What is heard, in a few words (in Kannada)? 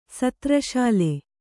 "ಸತ್ರಶಾಲೆ", Kannada